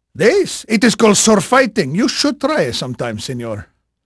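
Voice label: Spanish male, voice; Pirates Of The Caribbean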